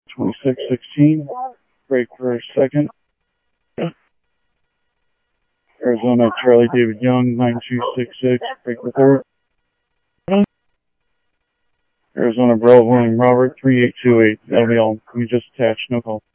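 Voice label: Sheriff East County, scanner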